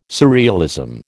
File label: "surrealism", American